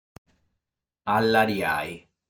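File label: "Allariai", Sardinian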